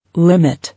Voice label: "Limit", English